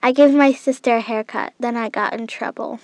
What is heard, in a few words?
child